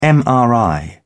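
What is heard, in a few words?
"mri", British English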